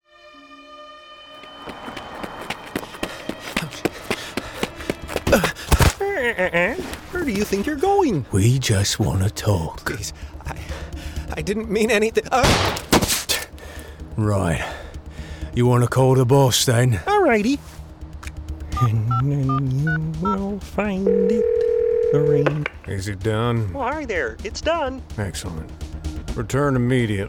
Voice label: Character; The Menagerie

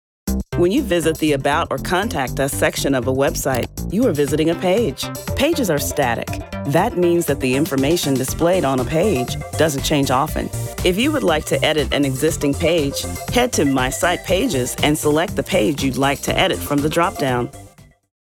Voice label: e Learning

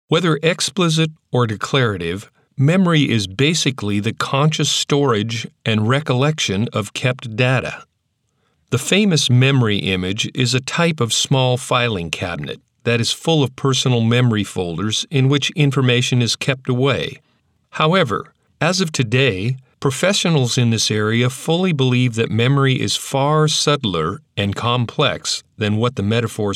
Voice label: E learning Explainer

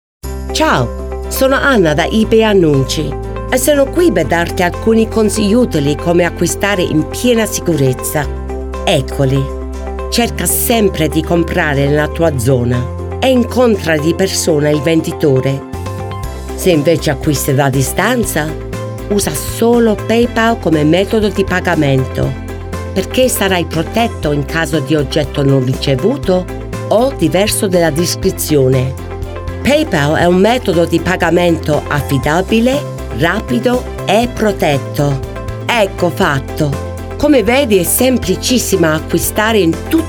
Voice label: Corporate

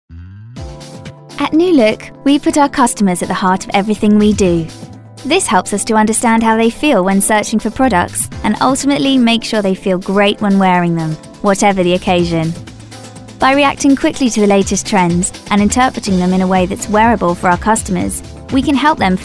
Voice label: Narrative Showreel

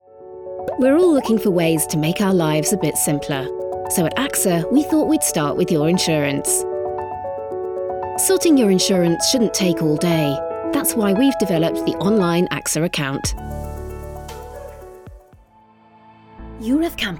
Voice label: Corporate

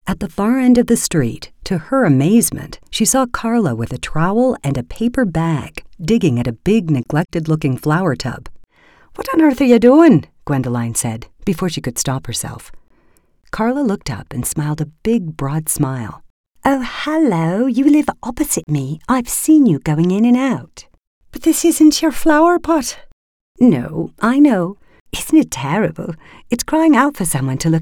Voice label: Fiction Sample

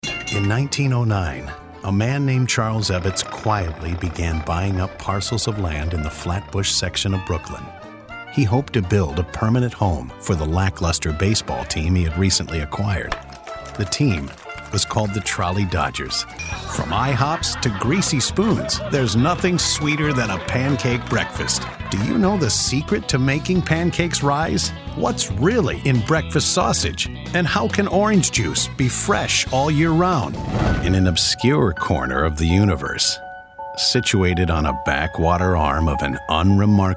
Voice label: Narration Story telling

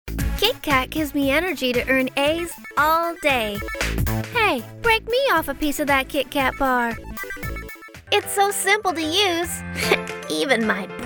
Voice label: Child

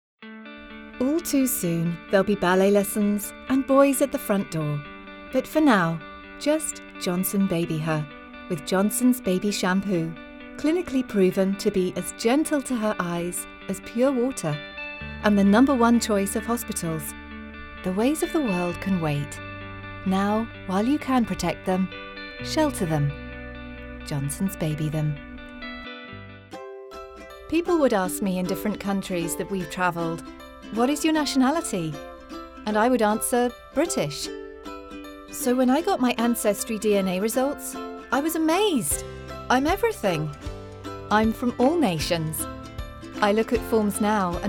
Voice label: natural sounding voice

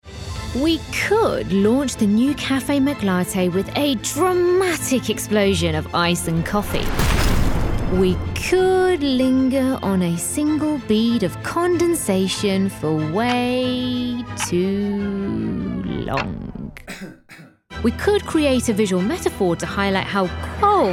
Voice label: commercial

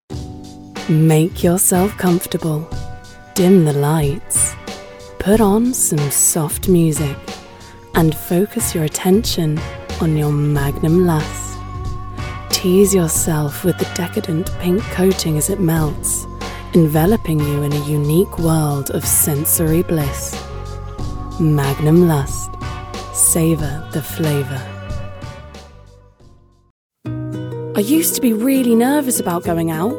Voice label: Commercial

